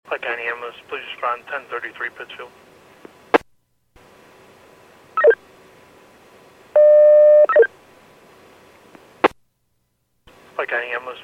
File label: PAGING